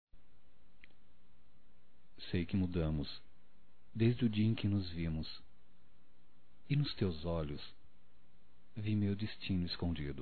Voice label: Procura Masculino; Românticas

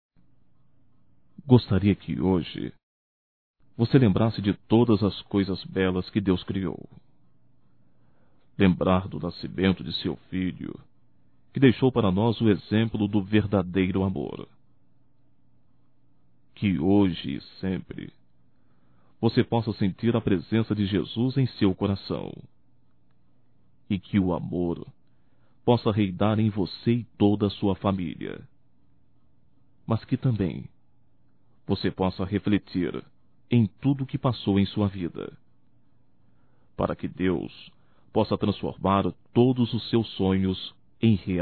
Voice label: Ano Novo Neutro Masculino; Natal e ano novo; Telemensagens 2017

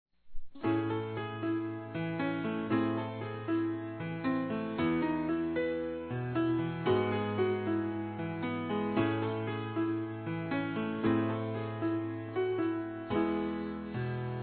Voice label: CONQUISTA F; Telemensagens 2017

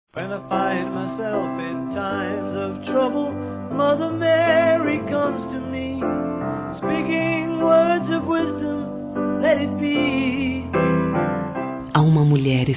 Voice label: Aniversário Tia Feminino; Aniversários; Aniv Familiar

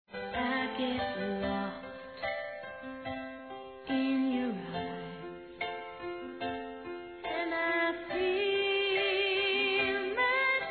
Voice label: ANIV. ROMANTICO F; Aniversários; fem

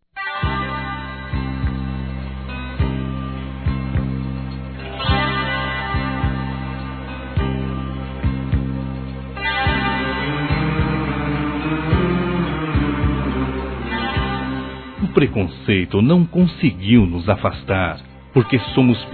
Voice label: Desculpas Amante - Masc; Telemensagens 2017

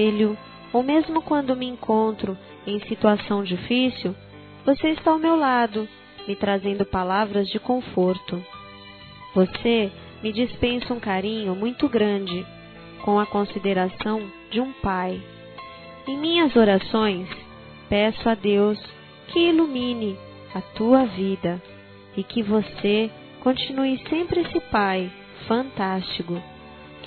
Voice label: NATAL PARA PADASTRO ADOLESCENTE F; Telemensagens; Natal e Ano novo; fem

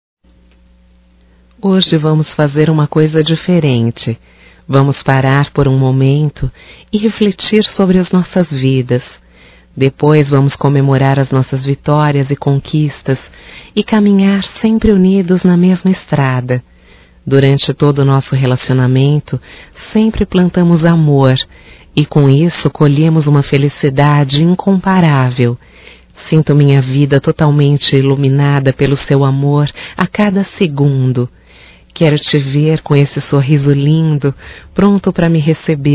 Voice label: Romântico Feminino

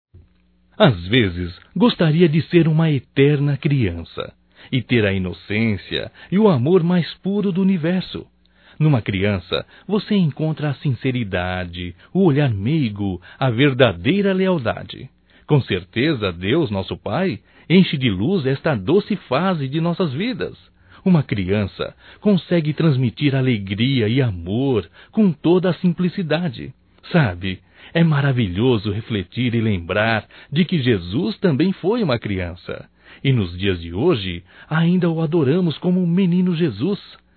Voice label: Dia Crianças Masculino; Telemensagens; Só Voz; DATAS COMEMORATIVAS

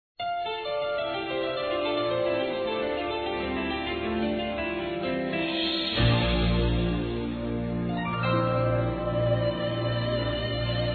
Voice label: ROMANTICO M, Dia das Mães, Masc; Telemensagens 2017

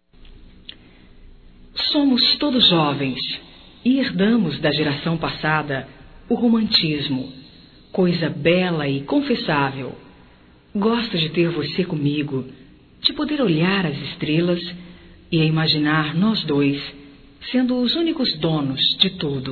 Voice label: Feminino; Amor Não Tem Idade Feminino

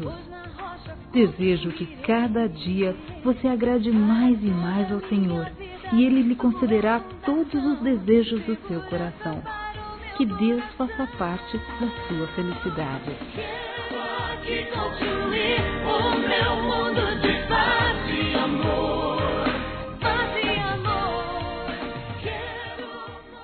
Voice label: AMIZADE GERAL (F), Telemensagens, Gospel, fem; Telemensagens 2017

